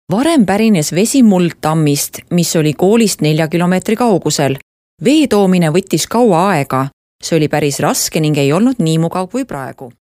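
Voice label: Estonian, female, narration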